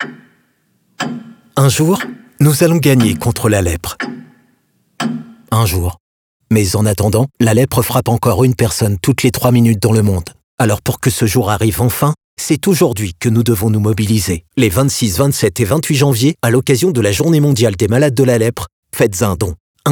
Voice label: spot radio; Studio Com'un Son

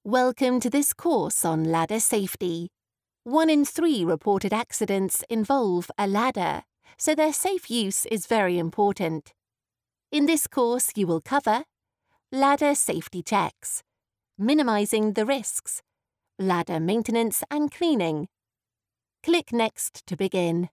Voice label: voix off femme uk

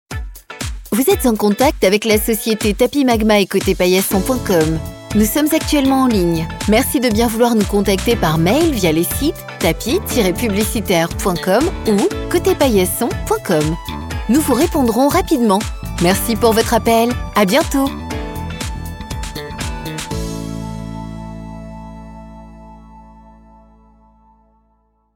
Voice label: voix off message acceuil telephonique femme; Studio Com'un Son; SPOTS RADIOS

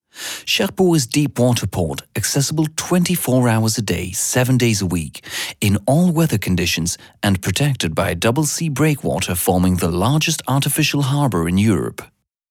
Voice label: voix off homme uk; Studio Com'un Son; SPOTS RADIOS

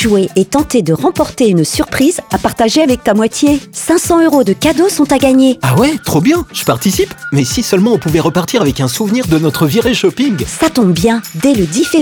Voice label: Annonce indoor Le Spot St Valentin

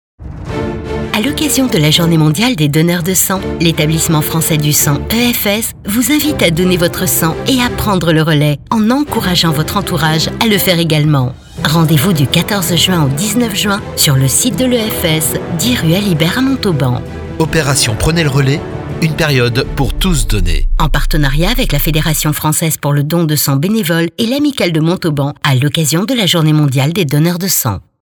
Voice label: voix off femme fr; Studio Com'un Son; SPOTS RADIOS

